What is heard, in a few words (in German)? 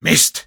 negative vocalization; Sniper